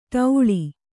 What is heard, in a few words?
"ಡೊಳ್ಳು", Kannada